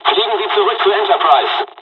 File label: evac, dialogs german; midway us; War Thunder